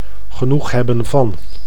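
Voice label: "genoeg hebben van", Dutch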